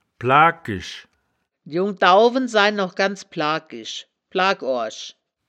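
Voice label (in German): "plaakisch", Wittlicher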